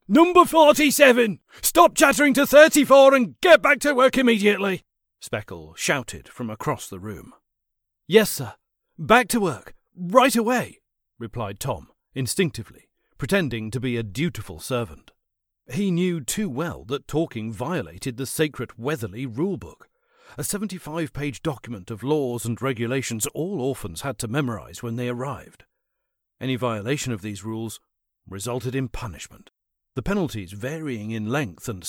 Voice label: Audiobook; Audiobook Demo Reel